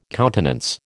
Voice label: "countenance", American